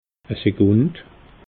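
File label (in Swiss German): "sekund", Alsatian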